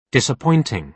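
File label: "disappointing", British English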